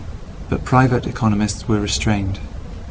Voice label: Reverb far

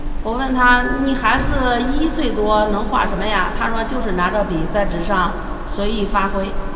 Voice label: noisy; RealMAN static; CleanMel; single-channel Mel-spectrogram denoising and dereverb

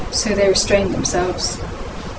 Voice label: Reverb near

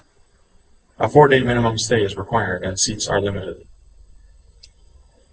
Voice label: REVERB real near room; offline FullSubNet; CleanMel; single-channel Mel-spectrogram denoising and dereverb

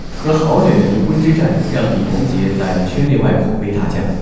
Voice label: noisy; RealMAN static; CleanMel; single-channel Mel-spectrogram denoising and dereverb

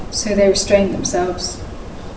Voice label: Reverb near

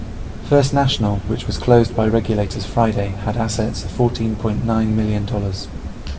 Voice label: Reverb far

